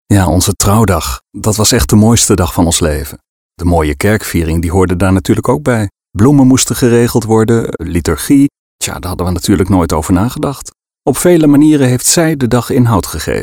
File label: soft sell testimonial